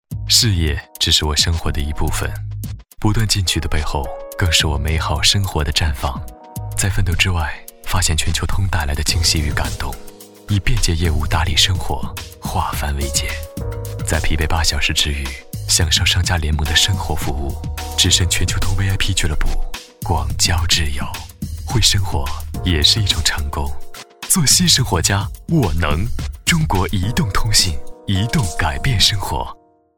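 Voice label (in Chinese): 广告_手机通信_大气; 中国移动全球通vip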